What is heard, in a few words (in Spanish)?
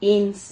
"ins", Silabas